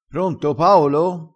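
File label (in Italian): "picchia", dialettale, crespinese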